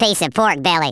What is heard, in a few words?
flawless, Redneck